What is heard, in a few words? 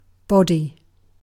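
"body", British English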